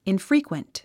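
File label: "infrequent", English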